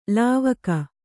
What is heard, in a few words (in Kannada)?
"ಲಾವಕ", kannada